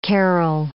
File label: "carrel", English